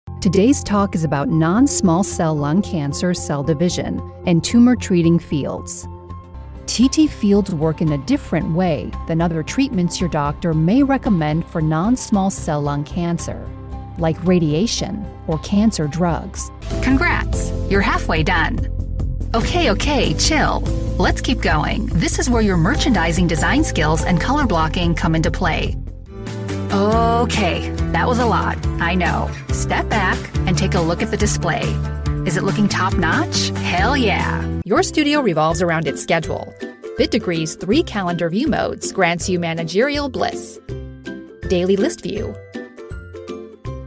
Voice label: eLearning